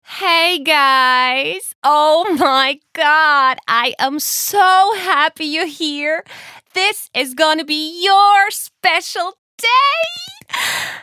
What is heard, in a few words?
English